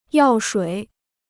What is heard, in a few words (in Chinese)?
"药水", Chinese